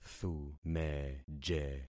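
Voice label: "thumeje", concatenated syllables; Affixing experiment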